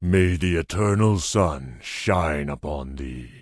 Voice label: Tauren Male Elder NPC Farewell

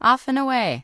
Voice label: Gnome Male Young NPC Farewell

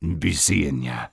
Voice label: Troll Male Standard Farewell; NPC

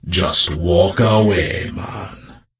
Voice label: Death Knight Troll Male Pissed

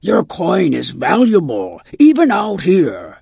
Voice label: Tuskarr Female Vendor; Magic User

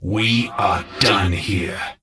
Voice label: Death Knight Male Low Farewell

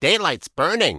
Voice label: Gnome Male Standard NPC Farewell

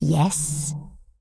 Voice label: Undead Female Magic NPC Greeting

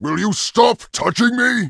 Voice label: Orc Male Standard Pissed

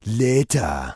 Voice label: Troll Male Shaman NPC Farewell

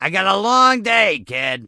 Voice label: Goblin Male Gruff NPC Pissed

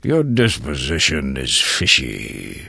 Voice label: Tuskarr Male Pissed; Warrior

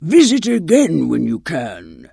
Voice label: Tuskarr Female Farewell; Magic User

